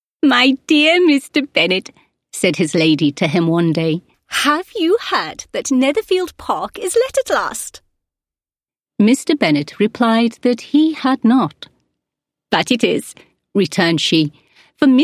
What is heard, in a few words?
British Female Voice Over Narration Reel